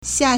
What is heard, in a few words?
"xia4", Chinese